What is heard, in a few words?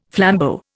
"flambeau", Indian